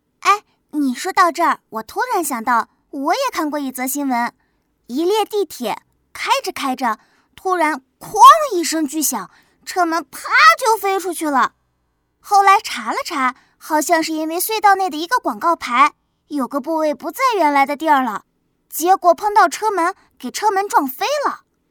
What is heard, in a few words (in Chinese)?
小女孩